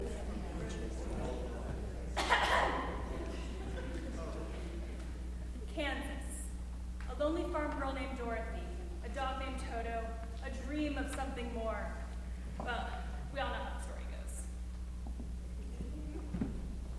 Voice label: Intro Narration